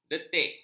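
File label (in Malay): "detik", Malay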